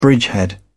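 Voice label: "bridgehead", English, British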